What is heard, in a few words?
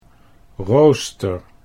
"rooster", Dutch